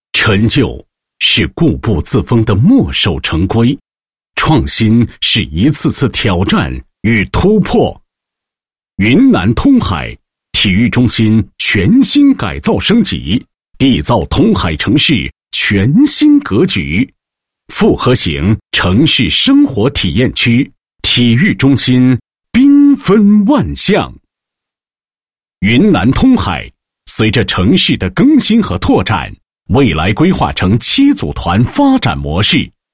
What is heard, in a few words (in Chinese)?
男 解说 体育中心